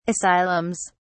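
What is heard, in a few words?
"asylums", British English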